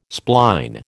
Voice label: "spline", American

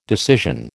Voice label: "decision", American

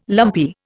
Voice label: "lumpy", Indian

